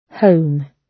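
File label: "home", British English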